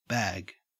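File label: "bag" Australian English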